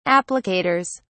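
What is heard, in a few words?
"applicators", British English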